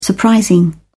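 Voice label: "surprising", British English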